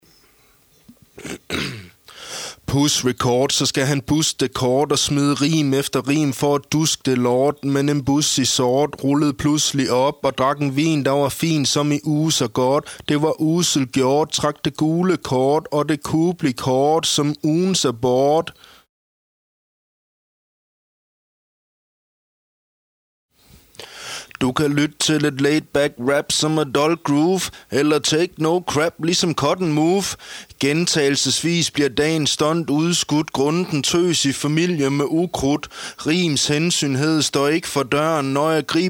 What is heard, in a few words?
blues i g - rap